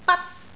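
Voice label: "bat1", Cantonese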